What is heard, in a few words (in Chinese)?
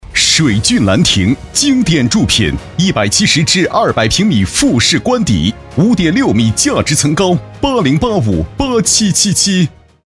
男-广告-房产-浑厚大气